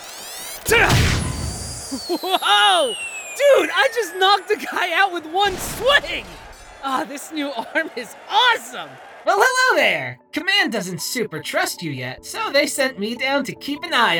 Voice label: Character